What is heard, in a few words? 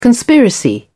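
"conspiracy", English, British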